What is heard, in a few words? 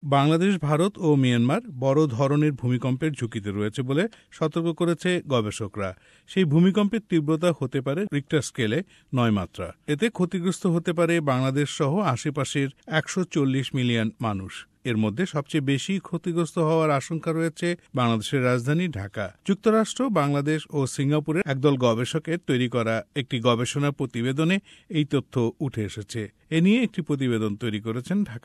bangla; SBS Bangla; Bangladesh in gravest threat of earthquake